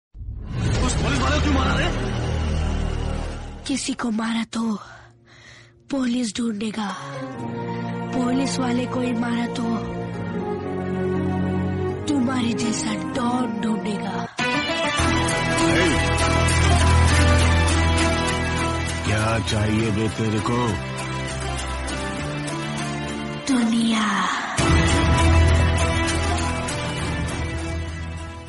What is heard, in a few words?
dialogue hindi; kgf